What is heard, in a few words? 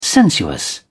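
"sensuous", British English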